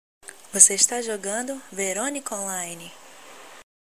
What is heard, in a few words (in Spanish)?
nota de voz